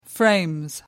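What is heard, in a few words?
"frames", English, British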